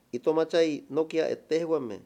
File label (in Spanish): "predicar", Mayo; Religón y creencias